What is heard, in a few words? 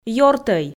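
"ёртӧй", Komi, female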